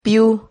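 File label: "piu", Spanish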